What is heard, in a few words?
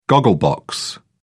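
"goggle box", British English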